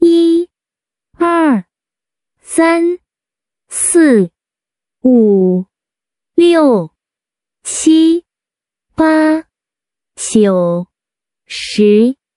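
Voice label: Yī, èr, sān, sì, wǔ, liù, qī, bā, jiǔ, shí, Chinese; 1-10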